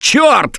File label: reaction negative, russian; Gambler